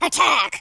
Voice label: fire, speech, War General

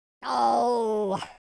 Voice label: sad, Pirate

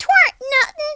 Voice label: "missed", Cowgirl; speech

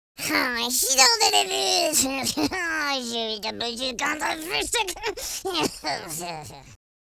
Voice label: angry, speech, French

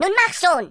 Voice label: hurry, German

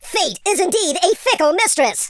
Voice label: bummer, speech, Super Hero

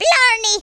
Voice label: "grenade", Irish; speech

